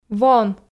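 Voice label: "вон", Komi, female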